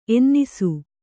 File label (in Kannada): "ಎನ್ನಿಸು", Kannada